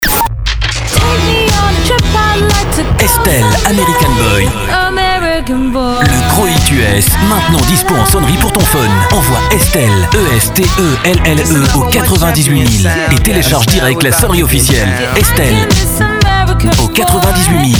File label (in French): radio pub spot